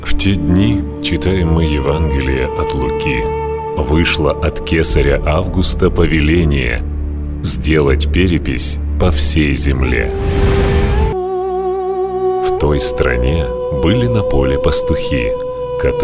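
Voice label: storyteller, Russian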